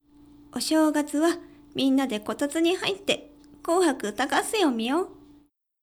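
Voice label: female; お正月はみんなでこたつに入って紅白歌合戦を観よう。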